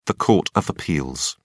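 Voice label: "court of appeals", British English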